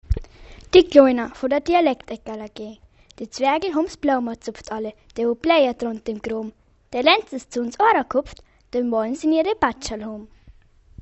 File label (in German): Dialekt; Fruehling; Storm